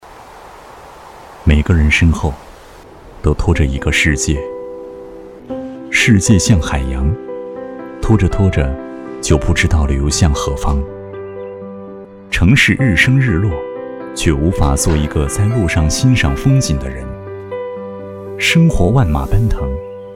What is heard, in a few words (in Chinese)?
男 独白; 苏州公安